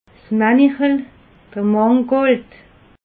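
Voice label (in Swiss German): "manichel", Alsatian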